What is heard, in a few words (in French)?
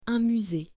"Un musee", French